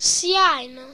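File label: awesome, Finnish; gcompris-data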